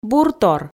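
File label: "буртор", Komi, female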